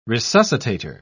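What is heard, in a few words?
"resuscitator", English, British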